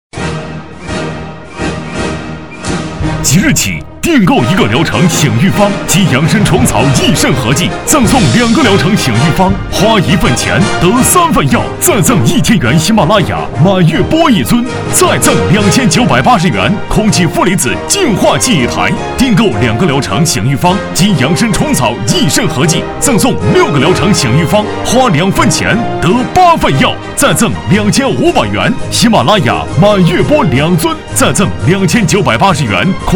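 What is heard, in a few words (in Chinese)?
电视购物激情医疗活动叫线; 电视购物激情医疗国学堂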